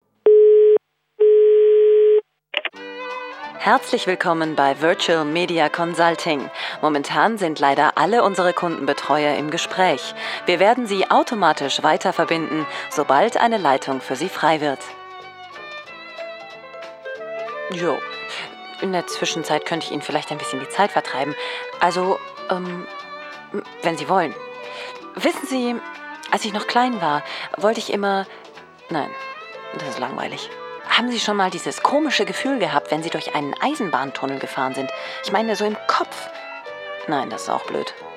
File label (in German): interaktive warteschleife